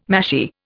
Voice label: "mashie", Indian